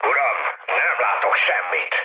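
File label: chat, speech hungarian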